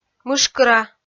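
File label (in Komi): "мышкыра", Komi, female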